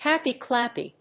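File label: "Happy-clappy", English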